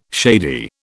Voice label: "shady", British